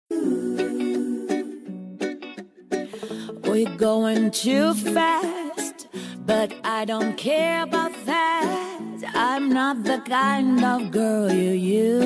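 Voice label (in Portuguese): ANIV NEUTRO male, Telemensagem Aniversário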